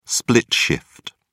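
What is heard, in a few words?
"split shift", British English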